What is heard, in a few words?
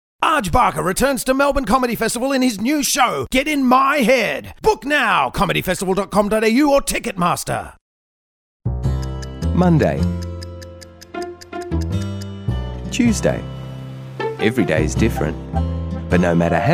Male Voiceover